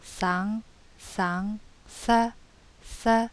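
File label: consonants z, yancheng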